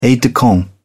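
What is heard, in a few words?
"aide-de-camp", British English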